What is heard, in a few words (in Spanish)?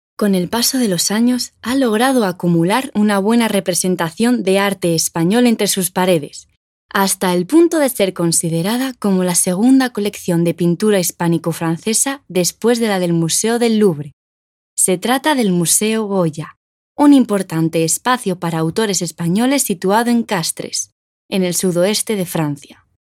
Audioguia